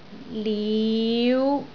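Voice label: "liu5", Cantonese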